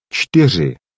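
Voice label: "shtiri", Czech, male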